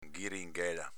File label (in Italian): "ghiringhela", Veneto; s.f. festa, baldoria